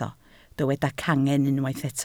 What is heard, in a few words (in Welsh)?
"cangen", Welsh